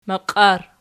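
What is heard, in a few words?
"maqiar" nominative, Archi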